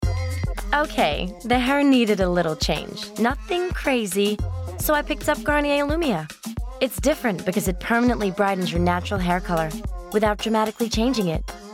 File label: US Accent; Garnier